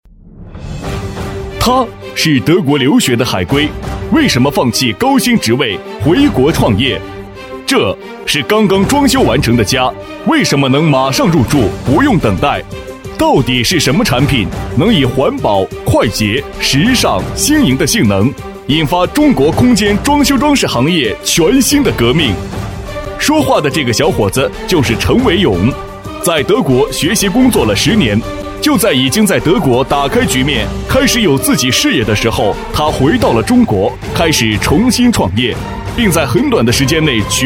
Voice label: 男 激情煽动; 智典

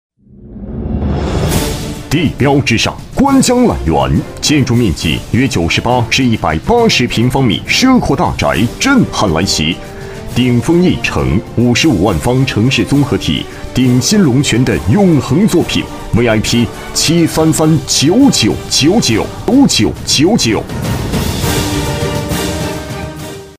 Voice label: 男 广告地产 激情

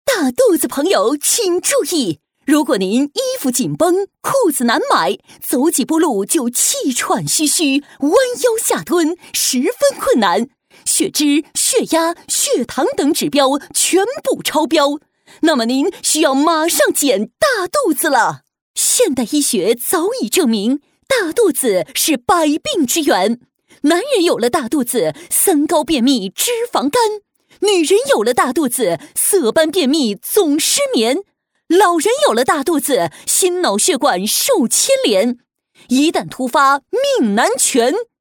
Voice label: 女 电购 激情; 大肚子

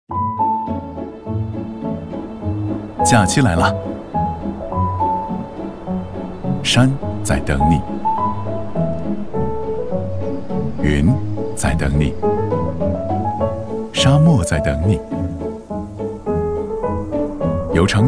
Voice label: 男 俏皮年轻 宣传片; vivox60

